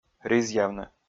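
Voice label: "ризъявны", Komi, male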